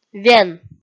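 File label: "вен", Komi, female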